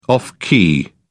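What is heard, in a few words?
"off key", British English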